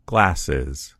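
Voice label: "glasses", American English; gcompris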